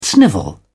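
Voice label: "snivel", British English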